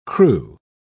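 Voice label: "cru", British English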